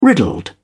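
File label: "riddled", British English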